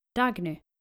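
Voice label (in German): "Dagny", dänische Mädchennamen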